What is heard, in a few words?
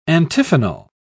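"antiphonal", British English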